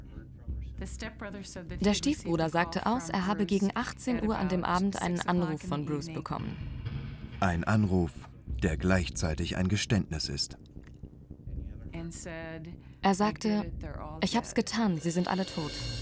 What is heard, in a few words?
voice over; main street mysteries 2016